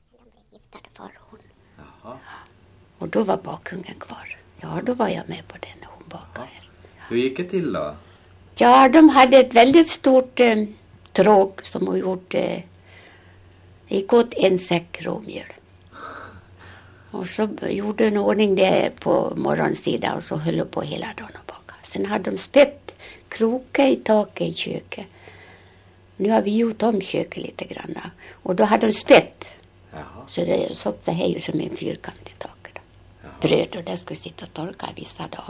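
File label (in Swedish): Falun Dalarna, dialekter; Folkminnen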